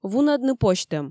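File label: "вунӧдны позьтӧм", Komi, female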